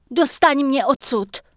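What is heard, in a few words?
Night Elf Female error invalid attack target, Czech; wowpreklad; czwow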